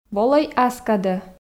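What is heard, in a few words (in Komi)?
"волӧй ас кадӧ", Komi, female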